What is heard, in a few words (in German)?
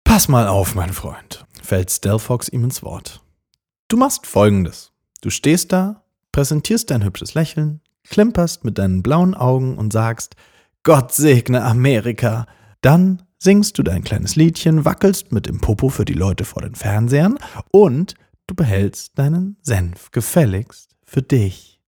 Hoerbuch; Gott Bewahre